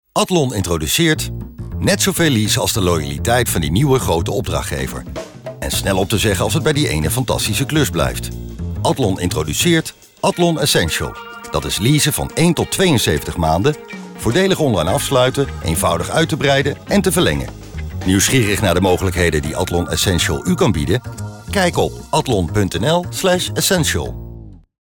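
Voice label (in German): Dutch Werbung Freundlich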